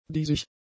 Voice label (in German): "diesig", german; adjectives